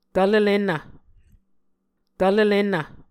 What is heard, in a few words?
"tvlelennv", Muscogee